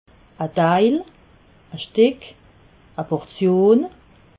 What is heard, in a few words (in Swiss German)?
"deil", alsace